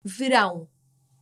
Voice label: "verão", portuguese

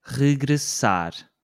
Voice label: "regressar", portuguese

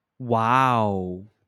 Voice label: "uau", Portuguese

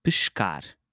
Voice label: "pescar", Portuguese

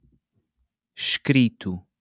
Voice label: "escrito", Portuguese

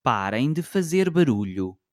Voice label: "parem de fazer barulho", Portuguese

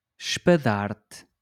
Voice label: "espadarte", Portuguese

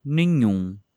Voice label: "nenhum", portuguese

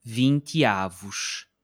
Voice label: "vinte avos", portuguese

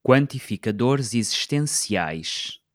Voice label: "quantificadores existenciais", Portuguese

